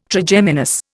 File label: "Trigeminus", Indian